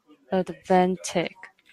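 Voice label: "advantage", Taiwanese, female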